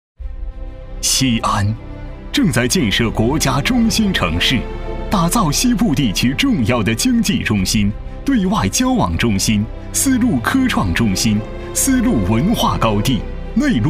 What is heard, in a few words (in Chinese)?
男,大气浑厚,汇报; 西安清水湾汇报